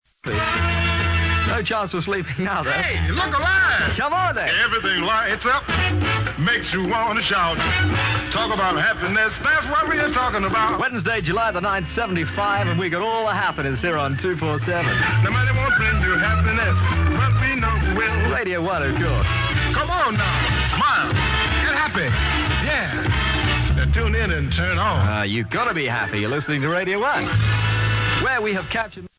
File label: happy; tony blackburn; 1975